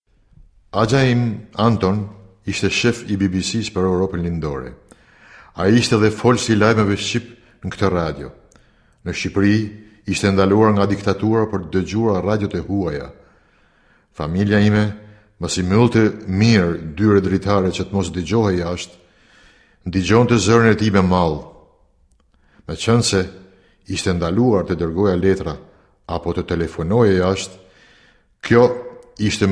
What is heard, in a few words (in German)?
Albanien M2, voiceactors